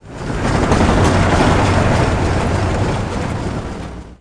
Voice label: Steam tank pissed